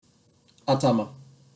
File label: "atama" Japanese